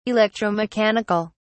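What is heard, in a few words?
"electromechanic(al)", British English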